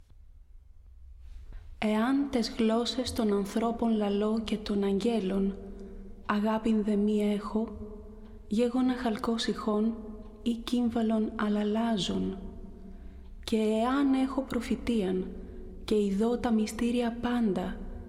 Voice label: Narration